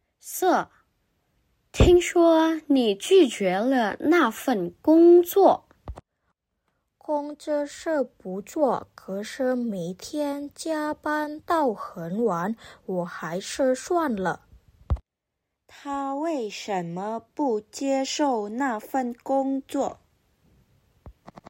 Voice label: Mandarin; mandarin placement test hsk5